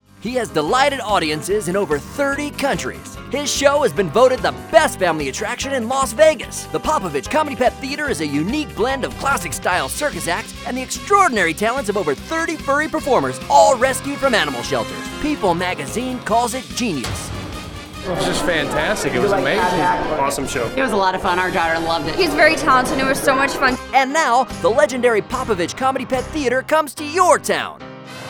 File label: 30 Second Commercial; POPOVICH COMEDY PET THEATER